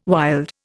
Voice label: "wild", Indian